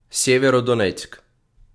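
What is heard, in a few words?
"Сєвєродонецьк", Ukrainian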